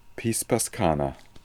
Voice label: "Pispascana", pronounciations; Fourth Way; Beelzebub

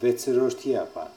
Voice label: "Vetserordiapan", pronounciations; Fourth Way; Beelzebub

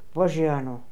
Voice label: "Vojiano", pronounciations; Fourth Way; Beelzebub

